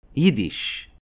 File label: "Yiddish", Hebrew